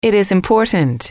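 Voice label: "it is important", English, female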